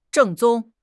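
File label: "正宗", Mandarin Chinese; HSK6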